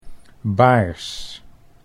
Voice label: "baars", Dutch